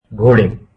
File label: hindi horses